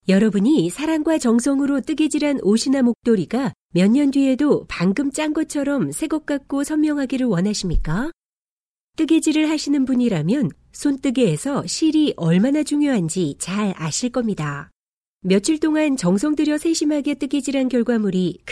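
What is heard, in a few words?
Korean, female, corporate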